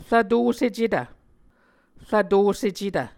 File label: "rvtosecetv", Muscogee